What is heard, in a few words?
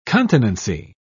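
"continency", British English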